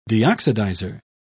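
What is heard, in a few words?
"deoxidizer", British English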